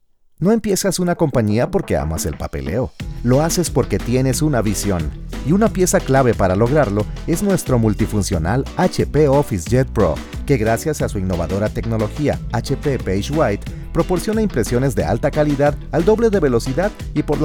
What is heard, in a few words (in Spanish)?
institucional; Bit